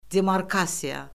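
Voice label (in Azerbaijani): "demarkasiya", Azerbaijani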